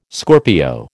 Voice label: "Scorpio", American